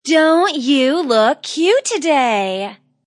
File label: Wmn Sys Dnt U Lk Ct Emph, Woman Says Don't You Look Cute Emphatic; Talking Sound Effects